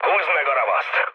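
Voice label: attack position, mood low, Hungarian; War Thunder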